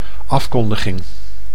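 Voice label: "afkondiging", Dutch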